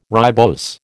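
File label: "ribose", American English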